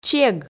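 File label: "чег", Komi, female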